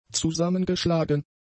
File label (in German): "zusammengeschlagen", German; adjectives